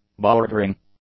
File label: "bordering", English; adjectives